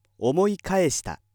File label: male